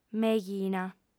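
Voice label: "meghina", female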